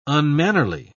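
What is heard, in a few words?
"unmannerly", English, British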